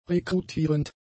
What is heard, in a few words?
"rekrutierend", German; adjectives